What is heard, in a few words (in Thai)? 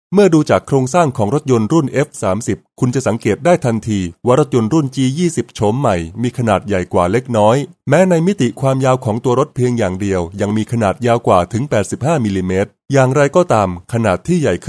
Thai automotive